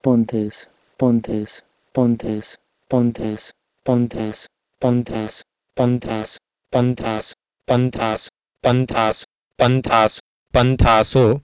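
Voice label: Proto-Indo-European "ponteh1s" to Sanskrit "panthaaso"; eastern origins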